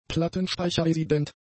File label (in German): "plattenspeicherresident", German; adjectives